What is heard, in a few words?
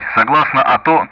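Speech Sound Russian; DCS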